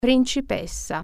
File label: princess, italian